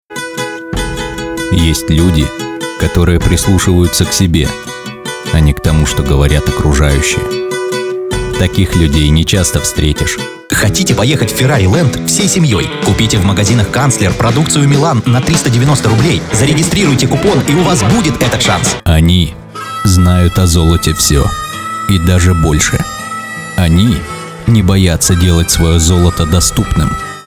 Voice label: by, belarusian